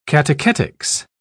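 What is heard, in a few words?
"catechetic", British English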